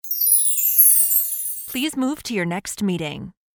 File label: magic chimes please move to your next meeting